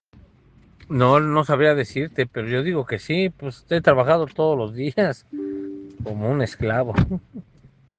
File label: whatsapp ptt